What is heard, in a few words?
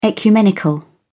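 "ecumenical", British English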